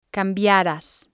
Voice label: "cambiaras", spanish

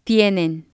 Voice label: "tienen", spanish

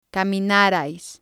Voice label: "caminarais", spanish

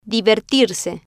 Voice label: "Divertirse", spanish; Family

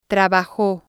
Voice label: "trabajo", spanish

